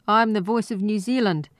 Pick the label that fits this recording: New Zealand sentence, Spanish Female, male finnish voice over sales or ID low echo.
New Zealand sentence